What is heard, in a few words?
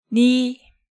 "Ni", Hangeul sounds